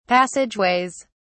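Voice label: "passageways", British English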